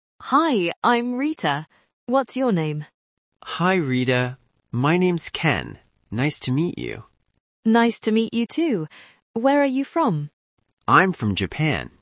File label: Conversation, age numbers; Beginner Basics: First Conversations; Listening Practice, Part 2